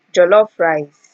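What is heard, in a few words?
"jollof rice", Nigerian Pidgin, female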